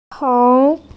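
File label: "heng2", Mandarin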